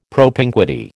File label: "propinquity", American